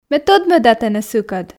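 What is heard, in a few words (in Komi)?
ме тӧдмӧда тэнӧ сыкӧд, Komi, female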